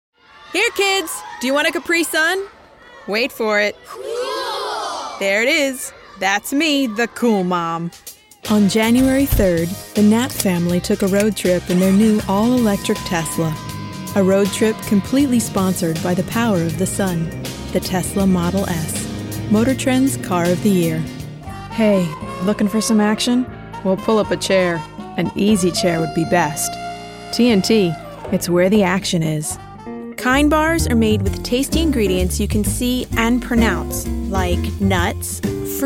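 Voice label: female English American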